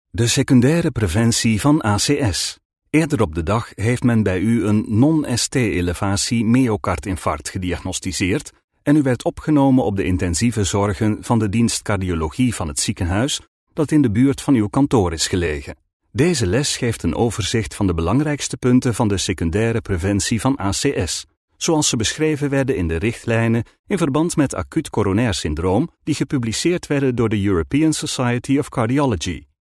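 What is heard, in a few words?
Flemish, Male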